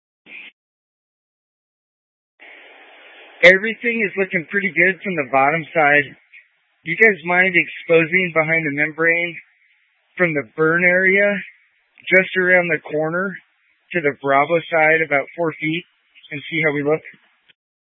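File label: Incident Tac 1, Scanner audio recording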